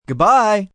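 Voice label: Goodbye Male, Goodbyes, male; Greetings And Goodbye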